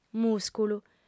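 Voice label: "mùsculu", female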